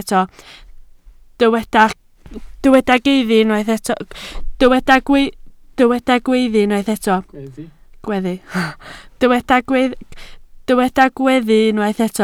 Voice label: "gweddu", Welsh

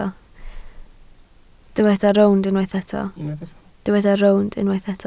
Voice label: "rownd", Welsh

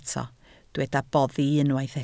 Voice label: "boddi", Welsh

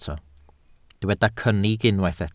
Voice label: "cynnig", welsh

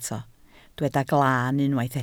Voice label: "glaan", Welsh

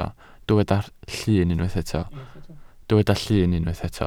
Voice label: "llun", Welsh

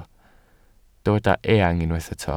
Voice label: "eang", welsh

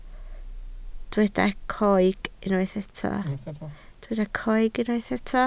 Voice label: "coeg", Welsh

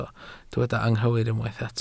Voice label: "anghywir", Welsh

